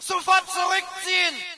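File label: German fall back; video games; player; source engine; dod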